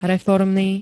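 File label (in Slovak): "reformny", Slovak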